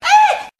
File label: "ay", voice